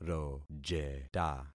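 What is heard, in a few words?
"rojeta", concatenated syllables; Affixing experiment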